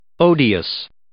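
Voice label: "odious", English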